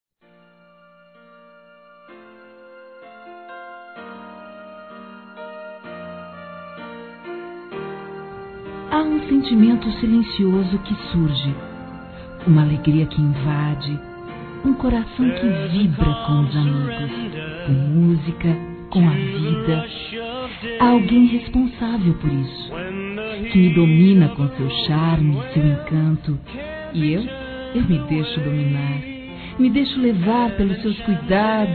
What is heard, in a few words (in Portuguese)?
Acho que estou gostando de Você Feminino; Telemensagens; Declaração de amor; Telemensagens 2017